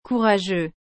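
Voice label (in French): "courageux", French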